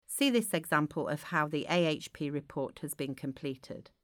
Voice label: completed narration; Allied Health Professional (AHP) Health and Work Report